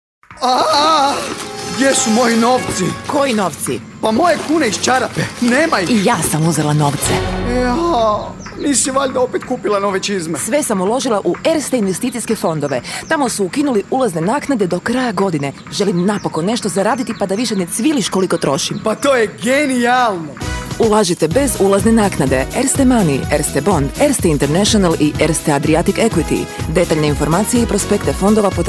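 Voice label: croatian male commercial; voice demos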